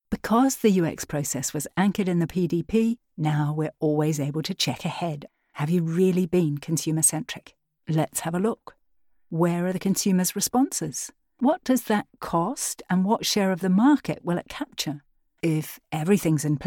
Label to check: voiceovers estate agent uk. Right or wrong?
Wrong